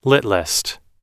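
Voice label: "littlest", US English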